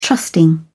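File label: "trusting", British English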